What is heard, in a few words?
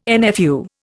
"NFU", Indian